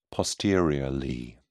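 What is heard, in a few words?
"posteriorly", British English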